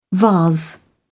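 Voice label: "vase", British English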